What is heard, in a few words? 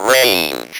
"RANGE", Speak & Spell